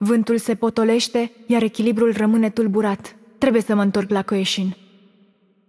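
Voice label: Romanian game outro; bloom minigame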